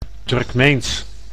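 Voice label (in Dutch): "Turkmeens", Dutch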